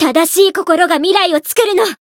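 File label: variable chant, Japanese; renri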